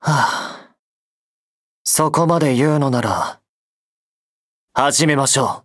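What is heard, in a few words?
start, Japanese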